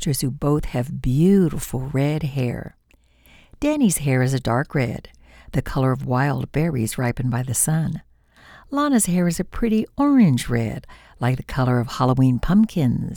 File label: Kids Book Narration; Carrot Top